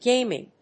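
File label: "gaming", English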